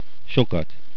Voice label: alot, hungarian

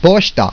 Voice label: postoff, romanian; plaatsen

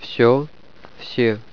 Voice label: all, russian

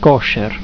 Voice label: "kosher", romanian

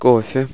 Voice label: "coffee" Russian

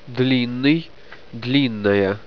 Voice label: long, russian

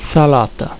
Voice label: "salata", romanian

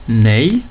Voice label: "nej", swedish

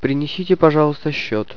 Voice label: bill, russian

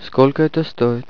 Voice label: cost, russian

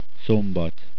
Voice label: saturday, hungarian

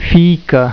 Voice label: fiica, romanian

